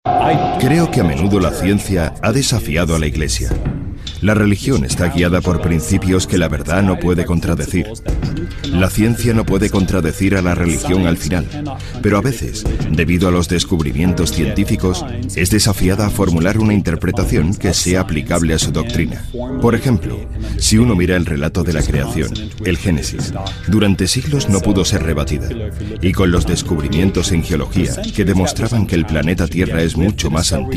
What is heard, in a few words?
spanish tv shows